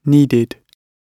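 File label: "needed", British English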